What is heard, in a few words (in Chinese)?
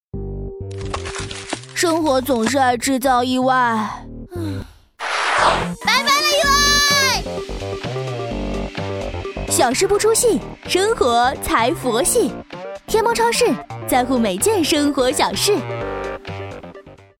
广告; 嗨皮天猫